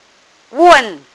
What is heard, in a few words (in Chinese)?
"wen4", phonics